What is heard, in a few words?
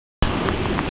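"er4", Mandarin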